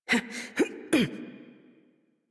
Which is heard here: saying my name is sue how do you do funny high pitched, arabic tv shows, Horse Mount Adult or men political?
Horse Mount Adult